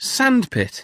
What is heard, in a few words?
"sandpit", English